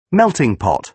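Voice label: "melting pot", British English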